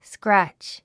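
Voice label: "scratch", English; 発音 skrǽtʃ スクラッチ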